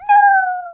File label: "nooo", Brooklyn; Worms Armageddon